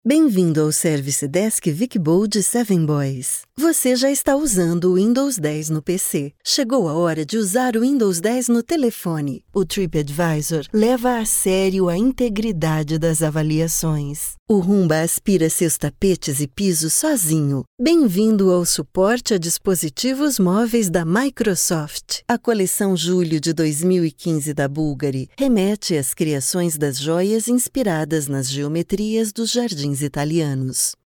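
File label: Brazilian Portuguese, female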